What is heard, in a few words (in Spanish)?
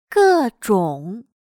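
"各种", chino mandarin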